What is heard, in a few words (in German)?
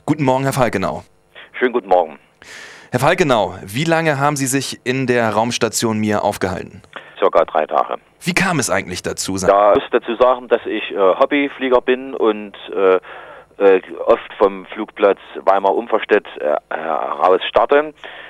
Radiojoke; Der blinde Passagier